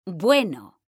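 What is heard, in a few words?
"BUENO", Spanish, female; mekanta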